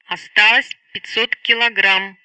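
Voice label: Speech Sound Russian Common Messages; Fuel500; Betty; DCS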